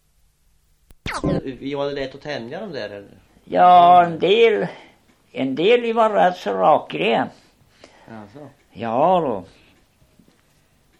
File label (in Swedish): Godegård, dialekter; Folkminnen